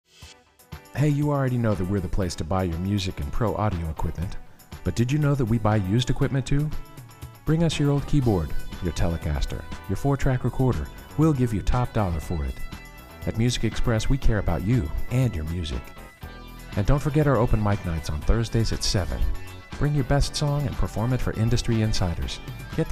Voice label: commercial